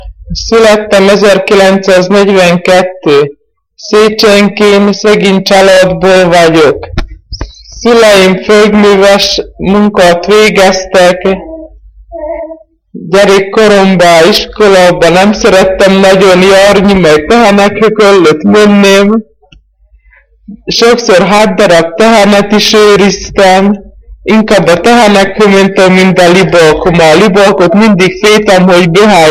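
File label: dialect collection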